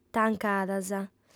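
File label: "tancadas", female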